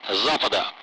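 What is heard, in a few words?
Speech Sound Russian Common Allied Flight; DCS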